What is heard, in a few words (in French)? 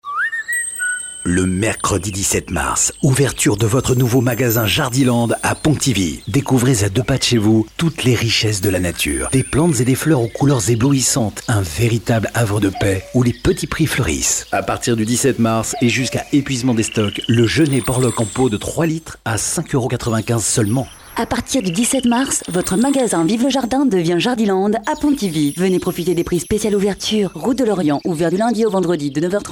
radio pub spot